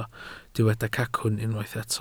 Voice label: "cacwn", Welsh